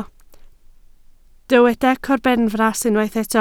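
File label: "corbenfras" Welsh, spoken word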